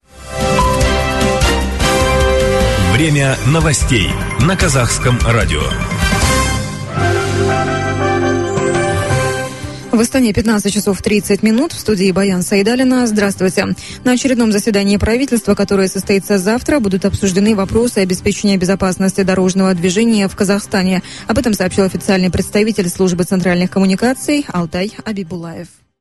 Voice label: Radio Russian; Kazakhskoe Radio